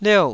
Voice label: "liou4", Taiwanese